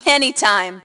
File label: Team Anytime, Female; T2 Female 01